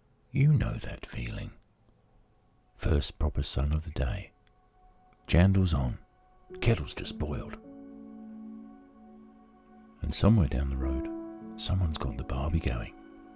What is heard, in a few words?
New Zealand Accent Samples